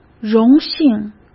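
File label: "荣幸", Mandarin Chinese; hsk; chinese-flashcards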